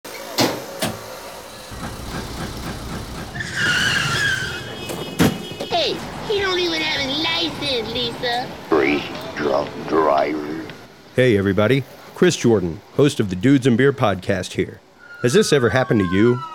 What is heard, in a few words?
Audio Commercial Ride Share; FARE